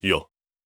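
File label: "yes", voice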